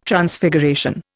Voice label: "Transfiguration", Indian